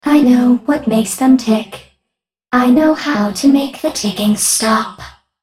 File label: taunt, en US; Oriana